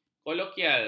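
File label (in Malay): "kolokial", Malay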